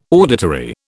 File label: "auditory", British